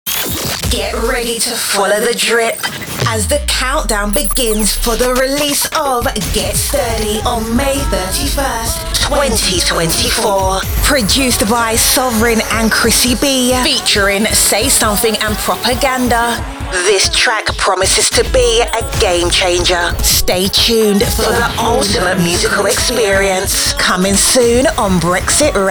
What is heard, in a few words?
Promo Ad